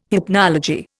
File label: "hypnology", Indian